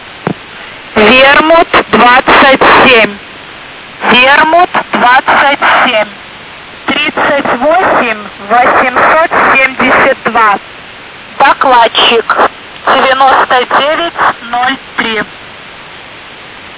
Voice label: usb msg, 5163.5 kHz J3E (USB); Vermut; military-stations; belarus; vyezha; DOKLADChIK 9903